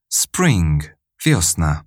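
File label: "spring", English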